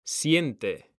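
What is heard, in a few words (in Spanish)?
"siente", male; mekanta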